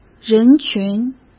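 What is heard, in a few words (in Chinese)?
"人群", Mandarin Chinese; hsk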